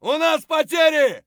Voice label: friendly down; Escape from Tarkov

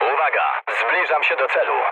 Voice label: near waypoint mood low, speech pol; War Thunder

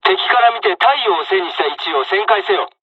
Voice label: sun, dialogs japanese; War Thunder; midway

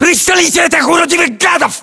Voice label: order action boost, ru; localized english

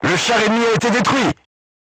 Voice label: voice message target destroyed, crew tanks french

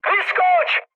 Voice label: bailout, speech cze, mood high; War Thunder

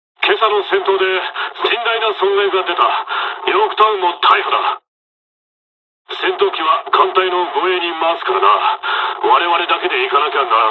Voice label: dialog, dialogs japanese; us midway; War Thunder

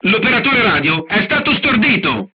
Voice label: machine gunner contused, crew tanks italian; War Thunder

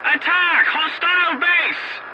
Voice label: voice message attack enemy base, english; War Thunder

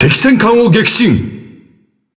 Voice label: Speech Destroy Enemy Battleship, JA; World Of Warships

